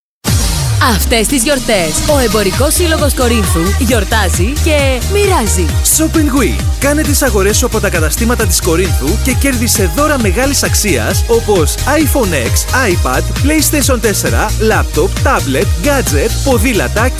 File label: RADIO SPOT